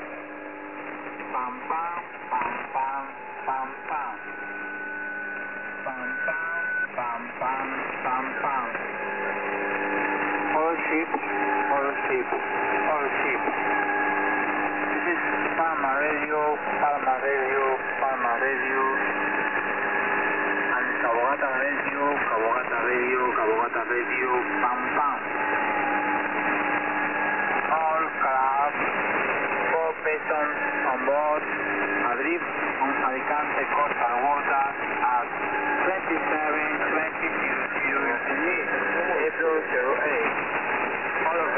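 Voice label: 2182 radio Pan Pan, 2182kHz PAN message, Marine Audio HF; Palma Radio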